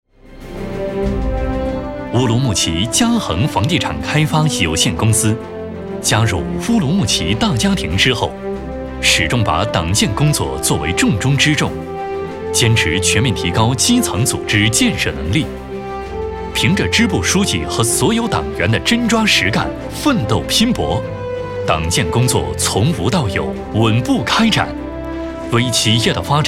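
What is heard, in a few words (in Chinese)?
磅礴大气党建纪实; 乌鲁木齐房地产开发公司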